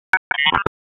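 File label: Raleigh Police Special Operations Tactical, p25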